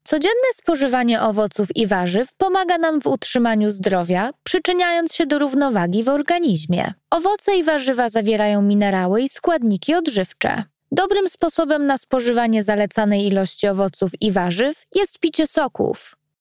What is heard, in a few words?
female polish voice over upbeat